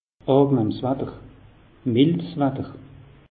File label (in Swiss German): "angenahms", alsace; meteo